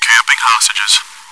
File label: chitchat, bot, voice commands; svencoop